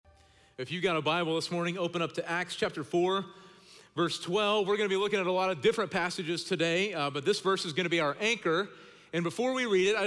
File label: Sermon English